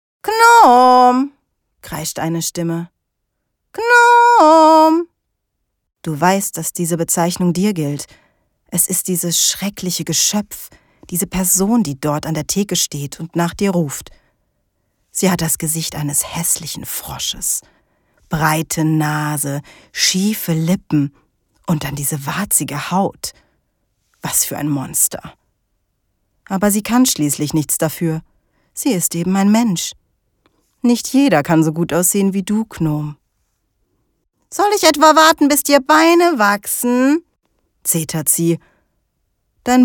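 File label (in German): hörbuch fantasy; Jugendbuch Fantasyroman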